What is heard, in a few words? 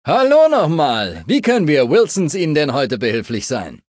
male adult default dialogue greeting; andale; Charakter: Bill Wilson; Fallout 3: Audiodialoge